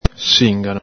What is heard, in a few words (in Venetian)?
"singano", Veneto